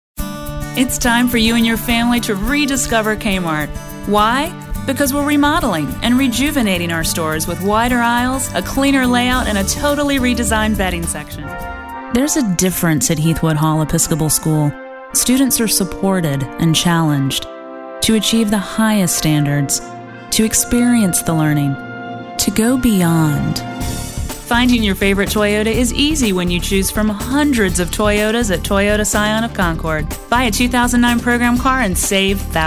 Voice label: General, commercial